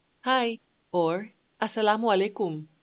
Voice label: Malay, hello